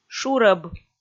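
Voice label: "шурӧб", Komi, female; kpv audio dict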